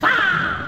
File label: faah fast